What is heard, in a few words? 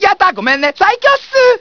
chatter